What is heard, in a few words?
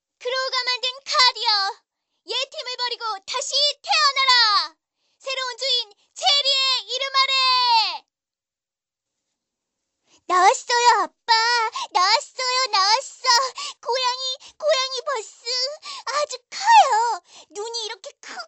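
Korean, female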